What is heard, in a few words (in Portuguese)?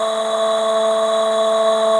Moderado, Ancoras Sintetizadas